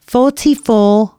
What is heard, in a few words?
announcements female